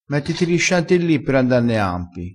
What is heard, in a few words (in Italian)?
"sciantilli", crespinese